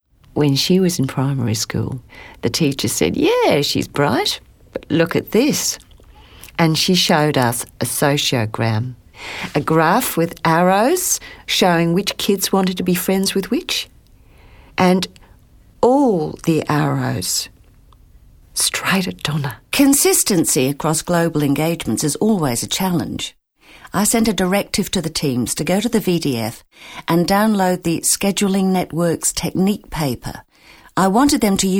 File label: Australian